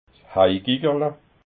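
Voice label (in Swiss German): "heigigerla", Alsatian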